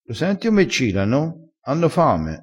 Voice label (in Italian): "cila", crespinese